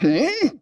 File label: Female; baldurs1